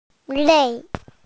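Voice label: "lei4", Mandarin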